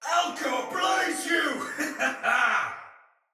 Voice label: Attack Heavy, Soldier Male; Barks Battle; Sinecure Soldier Male B

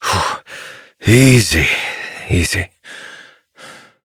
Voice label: Horse Calm Adult; Duviri

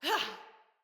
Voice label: Female, Battle Barks; Envoy

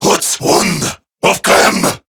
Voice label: Player Spotted, Male, Battle Barks; Dialog; Warframe; CorruptedGrineerMale

